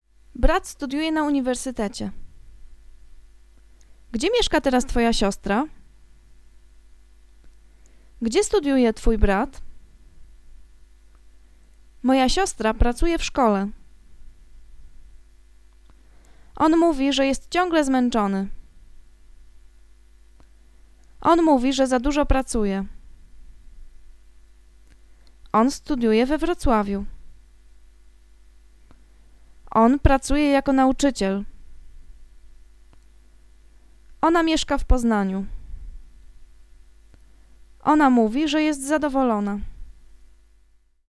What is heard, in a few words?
conversation; lektorek; firstyear